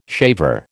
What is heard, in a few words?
"shaver", American